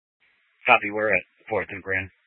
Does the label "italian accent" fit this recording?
No